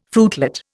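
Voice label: "fruitlet", Indian English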